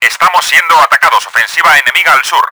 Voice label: fighter attack, Spanish; Battle Engine Aquila; XBOX

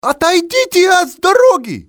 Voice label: outta the way, male; XBOX; civ

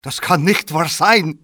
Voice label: cant be, male; XBOX

